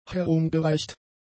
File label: "herumgereicht", German; adjectives